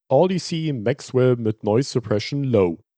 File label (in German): noise suppression low, Gaming-Headset; Audeze Maxwell